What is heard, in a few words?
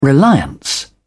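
"reliance", British English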